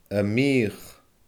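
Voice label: "a miioch", Scottish Gaelic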